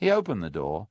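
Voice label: "he openeD ne door", Standard Southern British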